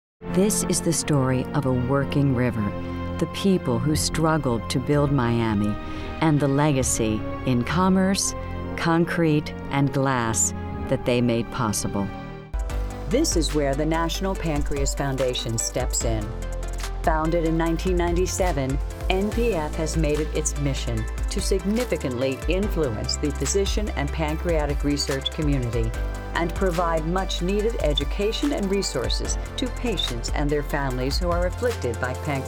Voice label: Narration Voiceover